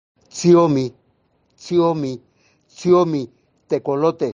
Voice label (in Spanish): tecolote, mixteco; vocabulario palabras en mixteco